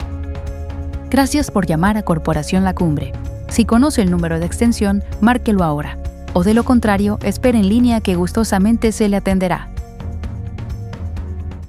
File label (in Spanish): ivr ejemplo